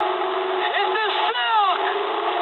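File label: English bailout mood med; War Thunder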